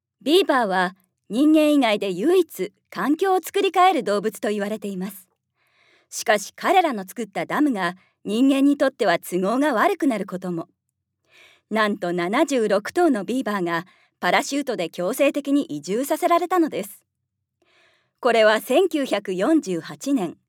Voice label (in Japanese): ナレーション